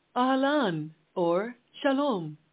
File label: Hebrew, hello